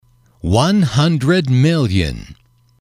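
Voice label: "one hundred million", English, male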